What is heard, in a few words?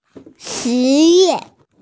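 "shi2 yue4", Mandarin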